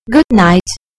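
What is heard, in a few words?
"good night", British English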